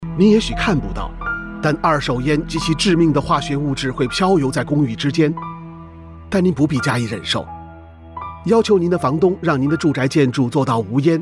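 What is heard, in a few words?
Chinese, male; Smoke-Free Housing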